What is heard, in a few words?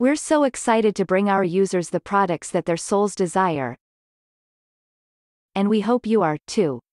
Monologue; Avie